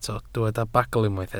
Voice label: "bagl", Welsh

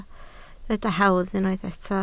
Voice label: "hawdd" Welsh

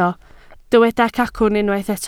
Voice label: "cacwn", Welsh

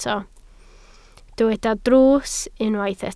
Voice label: "drws", Welsh

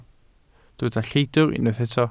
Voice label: "lleidr" Welsh

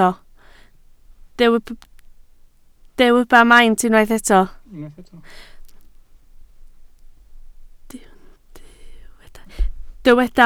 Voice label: "maint", Welsh

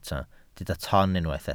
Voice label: "ton", Welsh

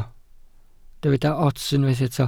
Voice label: "ots", Welsh

